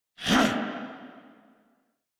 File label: Threatened Grineer Male